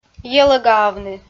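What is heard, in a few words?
"йӧлӧгаавны" Komi, female; audio dict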